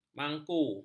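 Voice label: "mangku", Malay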